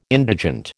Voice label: "indigent", American

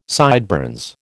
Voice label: "sideburns", American

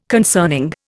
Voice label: "concerning", Indian

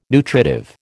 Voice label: "nutritive", American

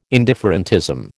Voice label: "indifferentism", American

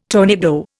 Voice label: "tornado", Indian

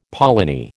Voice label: "polony", American

